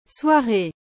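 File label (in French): "soiree", French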